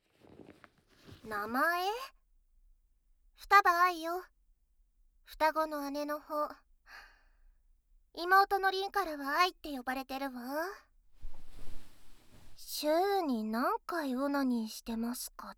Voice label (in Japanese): 自己紹介 双子の姉; 双葉愛（姉）ルート